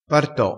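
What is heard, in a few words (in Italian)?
"parto", dialettale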